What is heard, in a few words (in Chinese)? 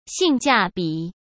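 "性价比", Mandarin Chinese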